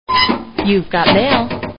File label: female opening mail; email